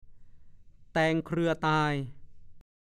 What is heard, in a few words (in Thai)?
"แตงเครือตาย", ภาษาโคราช; 1. แตงเถาตาย